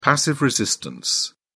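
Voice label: "passive resistance", British English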